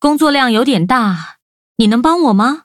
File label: loading, zh-hant; cookierunwiki